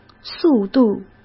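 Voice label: "速度", Mandarin Chinese; chinese flashcards; hsk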